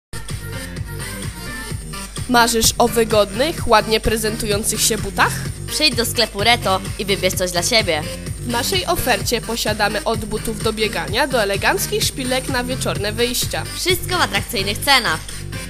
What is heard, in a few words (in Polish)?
reklama radiowa dowolnego produktu